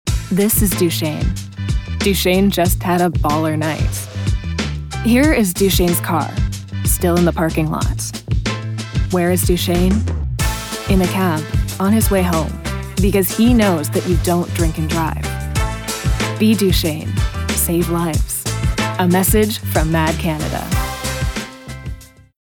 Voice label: Radio Commercials, Anti-Drunk Driving Psa, female voice; MADD